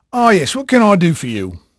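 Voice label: English male, voice; Pirates Of The Caribbean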